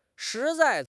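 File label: "shi2 zai4", Mandarin Chinese; HSK; HSK4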